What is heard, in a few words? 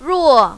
"ruo4", mandarin, female